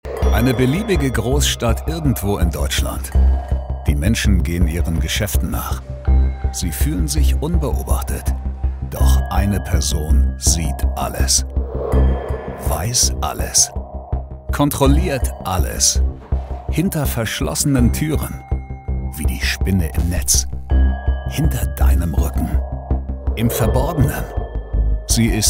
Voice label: Trailer; Prime Video; LOL